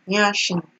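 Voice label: "nyashing", Nigerian Pidgin, female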